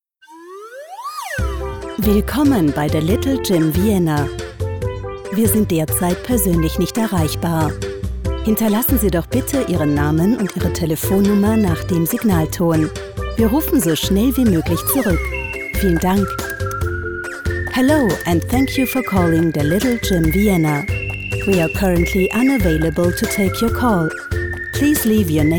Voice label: telefonansage mobilbox; Mobilboxansage

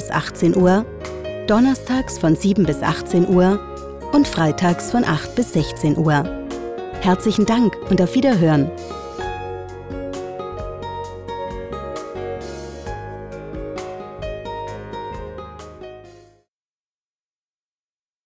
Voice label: telefonansage anrufbeantworter ordination

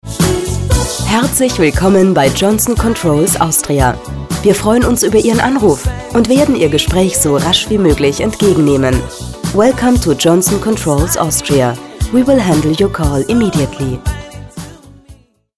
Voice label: Telefon; Österreich & Deutschland

